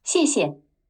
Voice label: thank you chinese; turkey dash